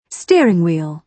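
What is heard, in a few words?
"steering wheel", British English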